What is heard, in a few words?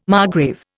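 "margrave", Indian English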